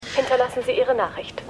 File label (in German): Mailbox Stimme; The Morning Show